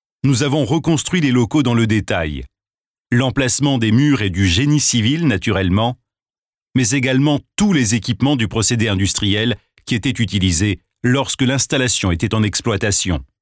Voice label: FILM ENTREPRISE VOIX OFF; institutionnel

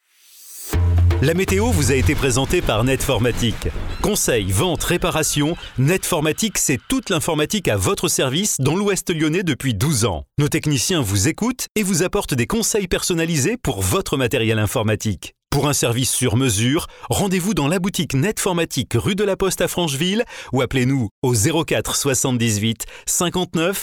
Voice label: sponsor voix off; radio

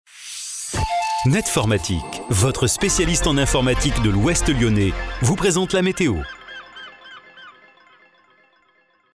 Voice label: sponsor voix off; radio

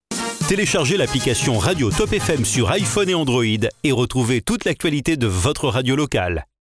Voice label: PROMO VOIX OFF; pub

